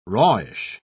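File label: "rawish", British English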